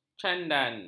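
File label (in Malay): "candan", Malay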